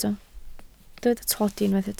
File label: "tlodi", Welsh